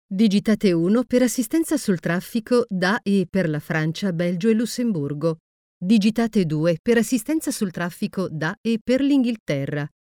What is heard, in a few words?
italian ivr